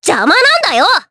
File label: Vox Skill, jp; Sonia; kings raid